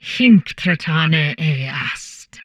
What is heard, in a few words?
humanoid portrait female; Stellaris; humanoids